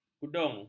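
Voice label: "kudung", Malay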